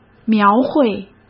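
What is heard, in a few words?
"描绘", Mandarin Chinese; hsk; chinese-flashcards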